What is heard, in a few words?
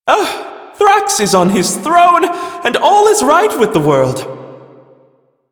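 Male Brisk en, ambient dialog; Warframe; Duviri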